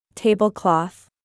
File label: "tablecloth", English